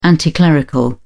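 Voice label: "anticlerical", English, British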